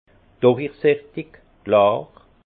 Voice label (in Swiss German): "durichsechtig", alsace; vin; limpide